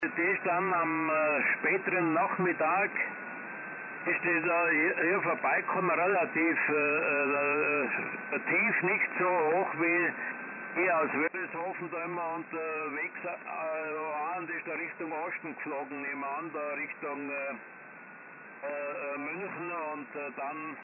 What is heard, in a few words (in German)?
Amateurfunk 80m LSB